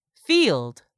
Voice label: "field", English; 3000 words